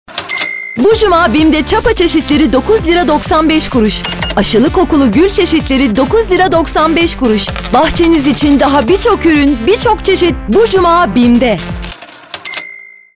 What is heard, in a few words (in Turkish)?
reklam; bim